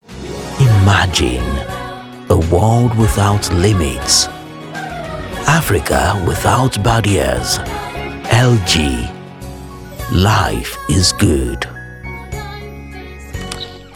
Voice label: Commercial

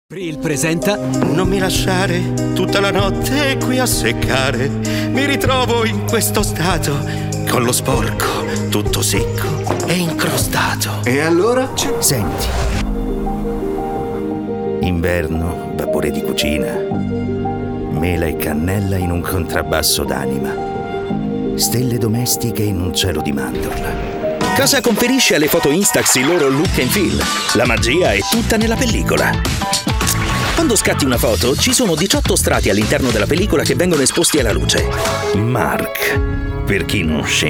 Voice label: Spot TV

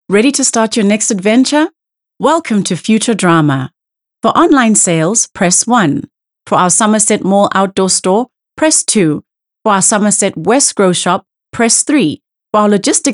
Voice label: IVR Phone Answering Corporate

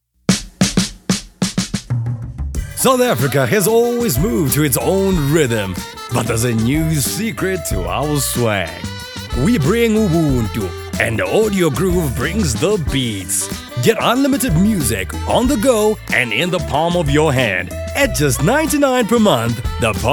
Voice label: voiceover

